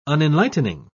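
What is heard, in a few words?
"unenlightening", British English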